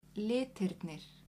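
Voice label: "litirnir", Icelandic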